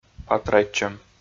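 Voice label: "патрайтчӧм", Komi, male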